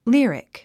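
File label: "lyric", English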